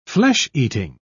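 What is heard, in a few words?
"flesh-eating", British English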